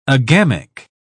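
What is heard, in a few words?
"agamic", British English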